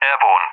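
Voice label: "airborne", English, UK; Messages; DCS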